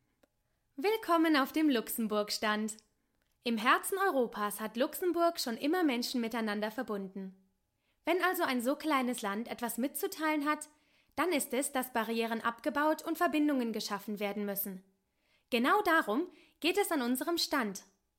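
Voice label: German corporate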